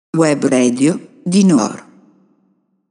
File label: Robot Voice